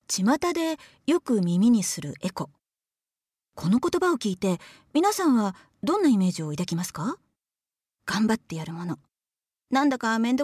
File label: narration